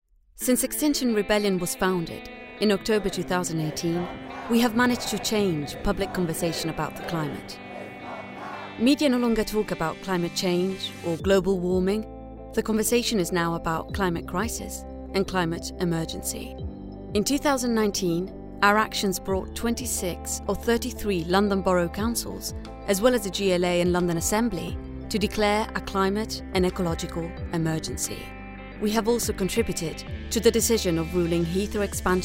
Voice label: Standard English Charity VO

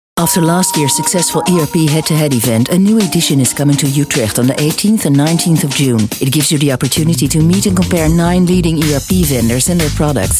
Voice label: English Radiospot

